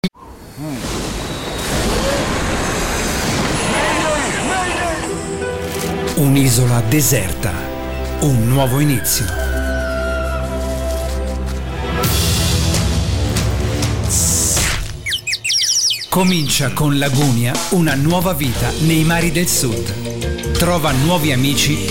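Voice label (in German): Italian, Werbung Dynamisch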